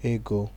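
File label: "ego", Nigerian Pidgin, male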